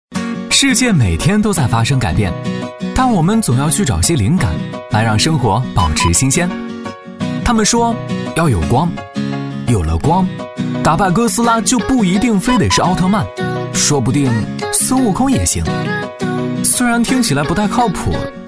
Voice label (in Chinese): 男 广告 活力; 好物的答案